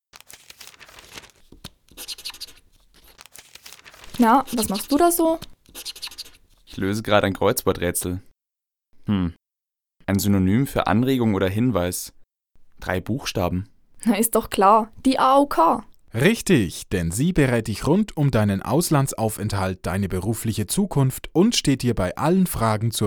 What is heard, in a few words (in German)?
Werbung Ohne Musikbett; AOK